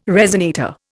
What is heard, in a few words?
"resonator", Indian